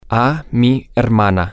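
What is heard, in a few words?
to my sister, spanish, male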